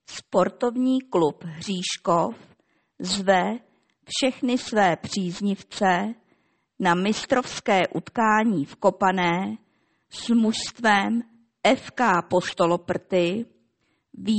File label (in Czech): hlaseni; rozhlas